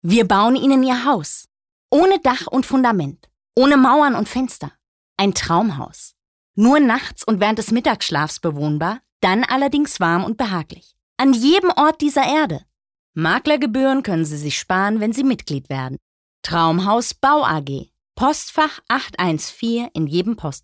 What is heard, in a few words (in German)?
Werbung, Freundlich